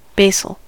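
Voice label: "basil", US English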